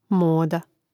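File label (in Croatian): "moda", Croatian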